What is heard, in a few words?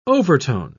"overtone", pronounce